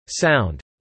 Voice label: "sound", English

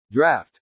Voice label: "draft", English

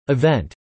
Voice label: "event", English

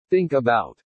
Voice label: "think about", pronounce

